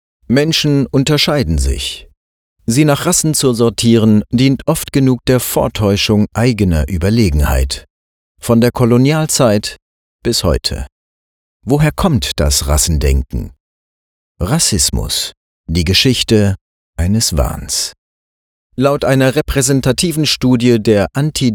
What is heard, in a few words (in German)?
Doku; Rassismus; LOFT VOICES